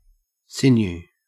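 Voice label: "sinew", Australian English; pronunciations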